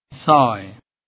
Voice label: 6, Bengali

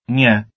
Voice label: "nje" Albanian

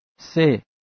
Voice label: "se" Farsi

